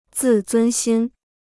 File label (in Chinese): "自尊心", Chinese